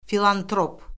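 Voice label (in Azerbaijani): "filantrop", Azerbaijani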